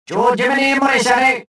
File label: detected, rodian